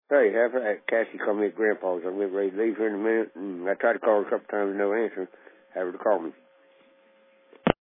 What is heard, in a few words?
Voicemail